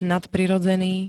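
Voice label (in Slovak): "nadprirodzený", Slovak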